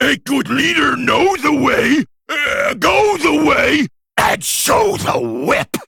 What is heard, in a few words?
Male Trogg Defeat Player